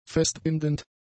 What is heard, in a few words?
"festbindend", german; adjectives